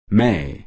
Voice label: "may" US